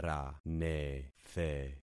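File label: "ranethe", concatenated syllables; Affixing experiment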